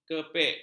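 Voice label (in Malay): "kepek", Malay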